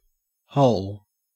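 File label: "hole", Australian English; pronunciations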